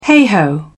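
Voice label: "heigh ho", British English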